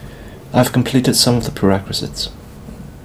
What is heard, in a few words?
interviewee male